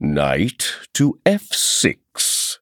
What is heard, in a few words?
Male Chess Piece Thinking